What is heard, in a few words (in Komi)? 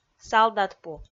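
"салдатпу", Komi, female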